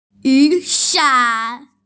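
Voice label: "yu san", Mandarin Chinese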